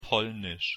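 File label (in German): "polnisch", German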